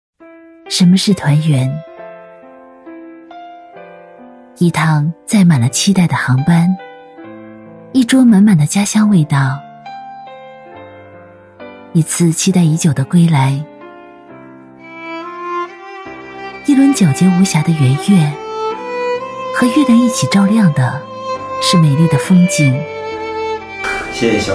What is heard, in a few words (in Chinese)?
女 旁白; 团园